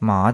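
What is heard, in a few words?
"maa5", Cantonese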